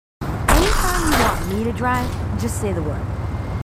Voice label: marines female